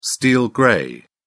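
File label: "steel grey", British English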